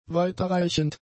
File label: "weiterreichend", German; adjectives